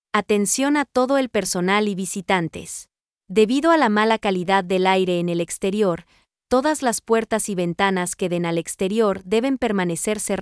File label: air Quality Alert, voice announcement